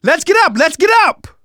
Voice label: revive friend loud, survivor voice; manager; Left 4 Dead 2; left4dead2 dlc2